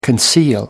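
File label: "conceal", British English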